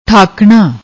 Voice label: "thaakna", Punjabi; ਠਾਕਣਾ

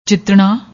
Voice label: "jitna", Punjabi

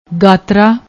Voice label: "gatra", Punjabi; ਗਾਤਰਾ

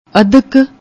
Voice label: "adhak", Punjabi; ਅਧਕ; ادھک; noun, masculine

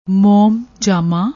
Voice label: "mom jaama", Punjabi

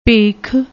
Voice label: "bhekh", Punjabi

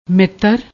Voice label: "mittar", Punjabi

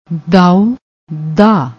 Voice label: "dao", Punjabi; ਦਾ

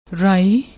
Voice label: "raye", Punjabi

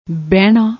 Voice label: "behna", Punjabi

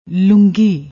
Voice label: "loongi", Punjabi

